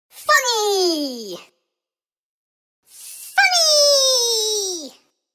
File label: The word ‘Funny’ spoken in cartoon comic voice x 2; Funny Sounds; Comedy